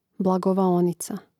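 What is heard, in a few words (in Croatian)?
"blagovaonica", Hrvatski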